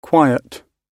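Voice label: "quiet", English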